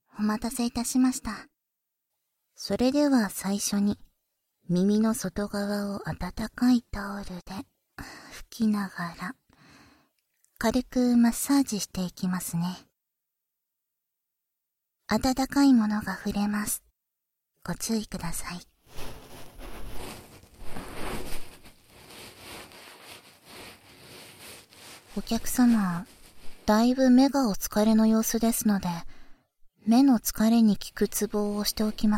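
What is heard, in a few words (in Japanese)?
耳かき（囁き重視編集 効果音あり大きめ）, ASMR; 沙希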